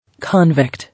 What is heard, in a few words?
"Convict", English